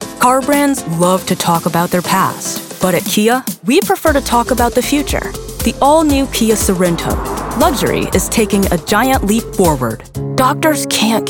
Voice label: Commercial